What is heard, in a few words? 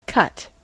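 "cut", US English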